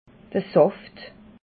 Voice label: "saft", alsace

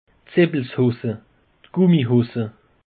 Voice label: "seppelshosse", alsace; peche

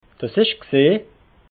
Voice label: "gsi", alsace

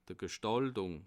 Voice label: "gstaltung", alsace

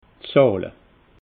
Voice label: "zahle", alsace

